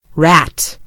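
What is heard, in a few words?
"rat", British English; gcompris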